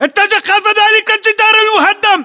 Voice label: order cover wall broken, battle chatter, english